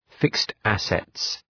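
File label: "fixed assets", English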